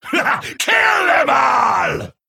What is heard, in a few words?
Male Troll Attack